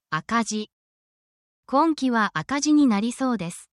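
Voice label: Japanese word, essential Japanese phrases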